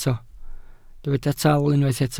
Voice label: "tal", welsh